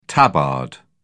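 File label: "tabard", British English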